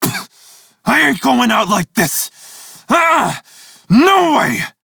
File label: crew near death, male; Dialog; en; Warframe; Railjack Crew; Red Veil